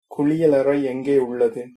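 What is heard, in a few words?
toilet, tamil, male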